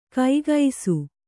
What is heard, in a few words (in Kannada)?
"ಕೈಗೈಸು", Kannada